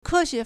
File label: "ke4xue2", Chinese